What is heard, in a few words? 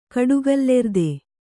"ಕಡುಗಲ್ಲೆರ್ದೆ", Kannada